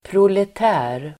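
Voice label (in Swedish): "proletär", Swedish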